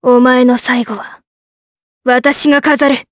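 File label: Vox Skill Japanese; Tanya; kings raid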